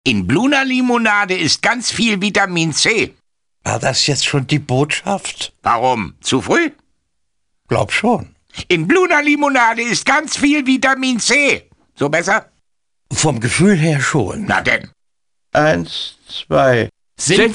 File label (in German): funk botschaft; Funkspots; BLUNA